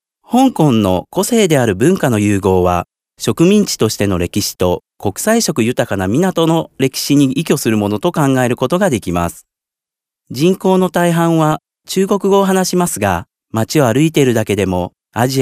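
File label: Japanese male corporate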